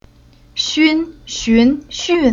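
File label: "Xun", Chinese syllable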